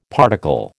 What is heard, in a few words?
"particle", American